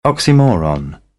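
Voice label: "oxymoron", British English; AmericanBritish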